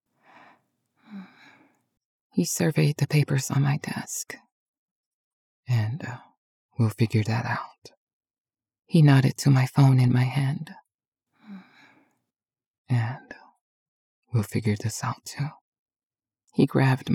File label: 1st person narration - Romance